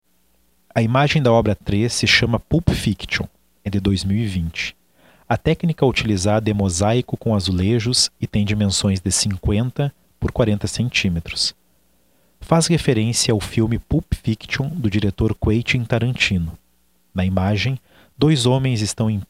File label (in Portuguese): audiodescrição; galeria virtual